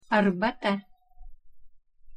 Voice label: "arbata", Litouws; thee-in-andere-talen

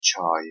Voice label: "чой", Oezbeeks; thee-in-andere-talen

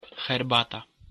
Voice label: "herbata", Pools; thee-in-andere-talen

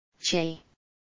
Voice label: "чай", Tsjetsjeens; thee-in-andere-talen

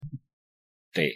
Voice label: "té", Asturisch; thee-in-andere-talen

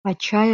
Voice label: "ачаи", Abchazisch; thee-in-andere-talen

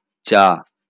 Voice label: "চা", Bengaals; thee-in-andere-talen

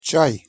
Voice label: "çay", Krim-Tataars; thee-in-andere-talen

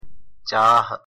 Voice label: "चाह", Awadhi; thee-in-andere-talen

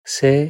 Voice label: "čee", Inari-Samisch; thee-in-andere-talen

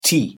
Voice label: "Tee", Duits; thee-in-andere-talen

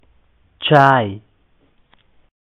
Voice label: "چای", Pasjtoe; thee-in-andere-talen

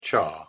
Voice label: "cha", Angelsaksisch; thee-in-andere-talen

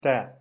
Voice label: "téh" Soendanees; thee-in-andere-talen

